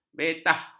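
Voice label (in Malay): "betah", Malay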